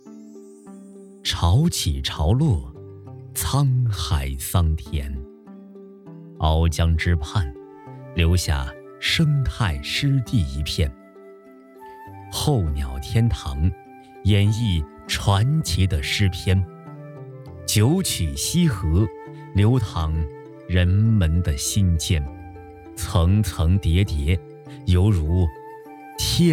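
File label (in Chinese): 男【城市专题片】; 鳌江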